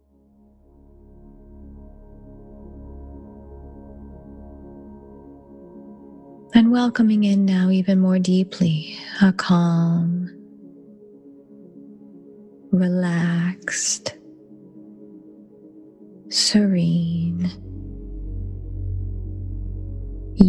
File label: Clarity Meditation